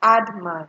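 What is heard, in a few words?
"hardman", Nigerian Pidgin, female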